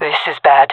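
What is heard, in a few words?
scared, female voice; player vo; Counter Strike Model Pack; CS:GO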